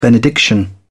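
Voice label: "benediction", English, British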